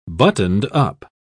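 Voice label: "buttoned-up", English, British English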